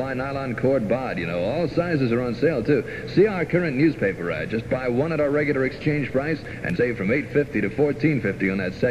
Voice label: spot, Firestone tires; wpgc; 1969